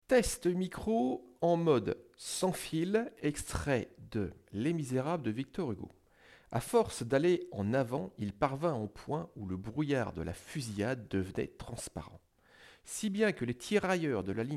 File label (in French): Test Micro Sans fil